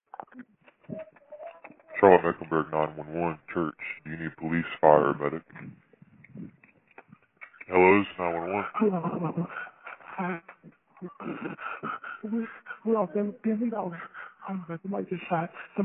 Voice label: 911 call